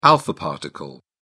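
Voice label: "alpha particle", British English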